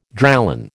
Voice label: "Dralon", American

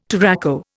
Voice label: "touraco", Indian

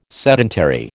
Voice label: "sedentary", American

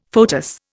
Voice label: "foetus", Indian

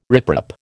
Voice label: "riprap", American

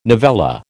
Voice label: "novella", American

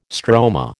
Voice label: "stroma", American

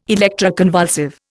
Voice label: "electroconvulsive", Indian

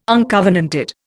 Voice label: "uncovenanted", Indian

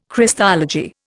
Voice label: "Christology", Indian

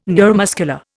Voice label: "neuromuscular", Indian

